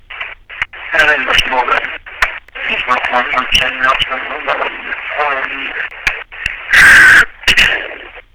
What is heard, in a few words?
message left on answering service reversed